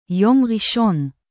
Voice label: "yom rishon", hebrew